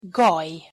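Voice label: "gally", Hungarian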